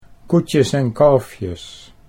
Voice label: "koetjes en kalfjes", Dutch

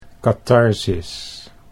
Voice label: "katharsis", Dutch

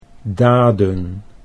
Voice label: "daden", Dutch

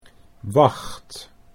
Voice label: "wacht", Dutch

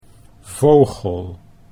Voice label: "vogel", Dutch; 'bird'

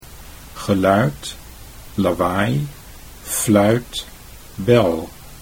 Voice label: "geluid lawaai", Dutch

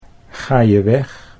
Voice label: "ga je weg", Dutch

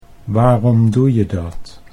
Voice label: waarom doe je dat, Dutch

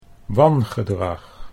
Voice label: "wangedrag", Dutch

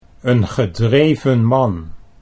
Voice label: "een gedreven man", Dutch

